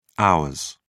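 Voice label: "hours", British English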